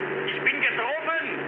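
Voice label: German aircraft damaged mood med, speech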